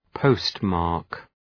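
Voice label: "postmark", English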